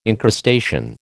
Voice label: "encrustation", American

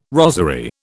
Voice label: "rosery", British

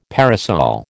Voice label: "parasol", American

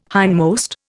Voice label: "hindmost", Indian

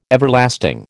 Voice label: "Everlasting", American

